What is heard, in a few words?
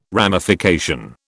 "ramification", British